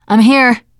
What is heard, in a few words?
scenario join, teen girl